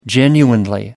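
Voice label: "genuinely", British English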